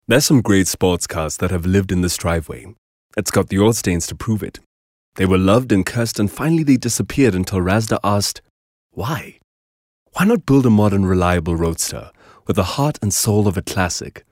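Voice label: English Conversational South African Neutral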